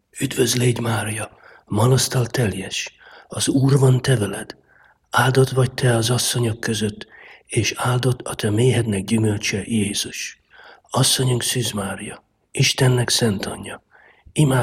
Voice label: hail mary hungarian

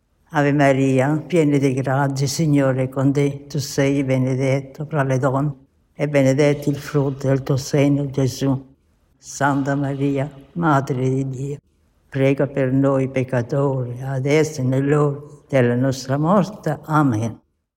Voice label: hail mary italian

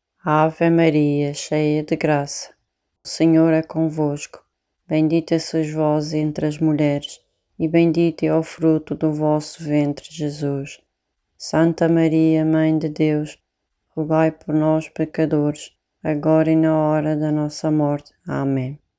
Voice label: hail mary portuguese

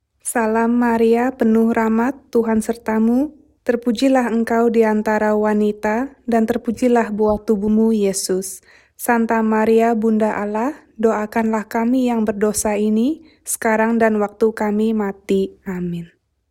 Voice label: hail mary bahasa indonesia

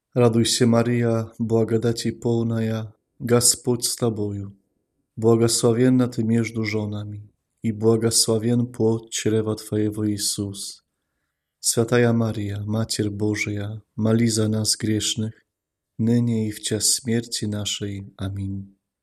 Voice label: hail mary russian

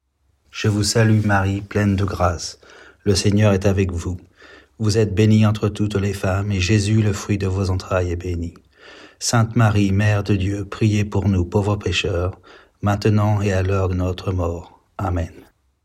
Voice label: hail mary french

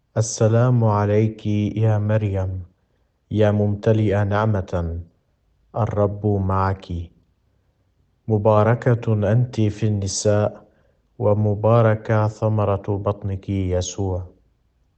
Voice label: hail mary arabic

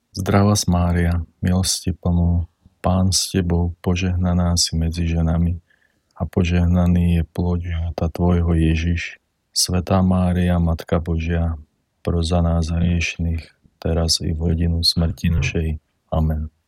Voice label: hail mary slovakian

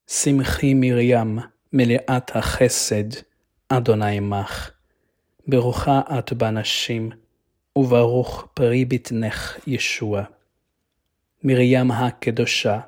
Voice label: hail mary hebrew

